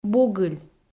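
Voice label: "бугыль", Komi, female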